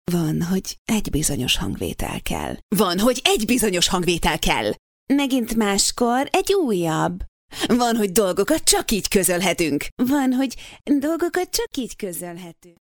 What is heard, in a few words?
HU F characters